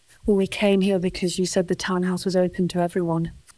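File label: Voice Acting es, female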